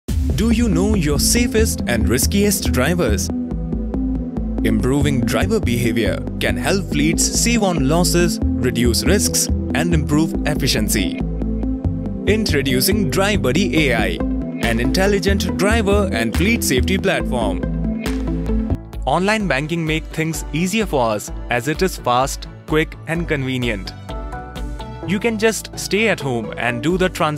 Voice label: Explainer English